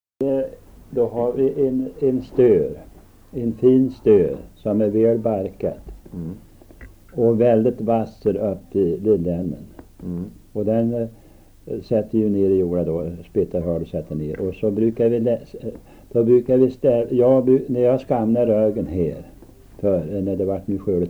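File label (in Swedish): Viby Närke, Svenska sagor, dialekter; Folkminnen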